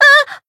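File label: Voice damaged, Japanese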